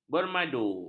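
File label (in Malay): "bermadu", Malay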